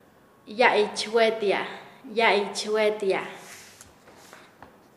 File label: Nasa Yuwe; descolgarse; paez